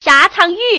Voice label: woman gang

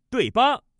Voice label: pair, male

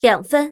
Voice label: woman

